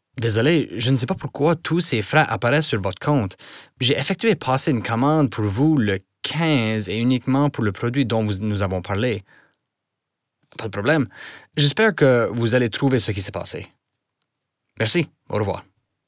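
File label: French Canadian dialogue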